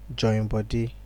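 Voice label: "joinbody", Nigerian Pidgin, male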